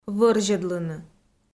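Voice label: "вӧрзьӧдлыны", Komi, female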